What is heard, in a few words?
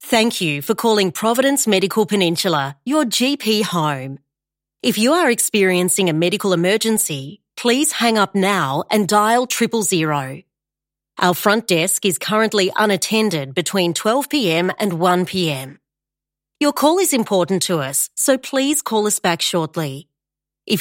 phone business voicemail; Providence Medical